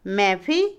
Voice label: sirviente, Otomí, mujer; INALI

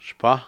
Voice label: águila, Cucapá; Animales

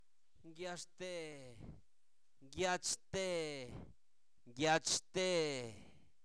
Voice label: Zapoteco Albarradas, santo domingo albarradas; INALI; enfermedades; sarampión

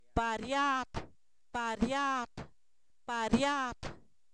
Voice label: enredo, Zapoteco, san miguel albarradas; objetos inanimados

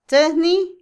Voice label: llamar, Otomí, mujer; Habla; INALI

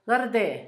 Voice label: bracero, Zapoteco, Asunción Ocotlan; Objetos; INALI

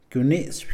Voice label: "bendecir", Mixe, hombre; Religión

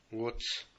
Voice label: "montaña", Mam; INAH; INALI

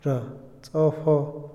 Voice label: llamarse, Otomí, hombre; Habla; INALI

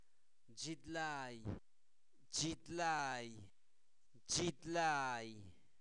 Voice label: diente, Zapoteco, santo domingo albarradas; cuerpo; INALI

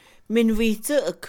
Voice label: Mixe, Mujer; velo; Ropa; INALI

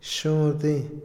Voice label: "mañana", Otomí, hombre; Tiempo; Vocabulary; INALI

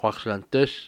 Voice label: "potrillo", Cucapá

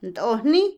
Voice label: pluma, Otomí, mujer; Habla; INALI

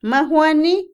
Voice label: verdadero, Otomí, mujer; Emociones; INALI

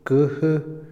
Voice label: entrar, Otomí, hombre; Movimiento; INALI

